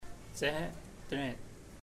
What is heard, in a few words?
he wants to come, chamacoco; Puerto Diana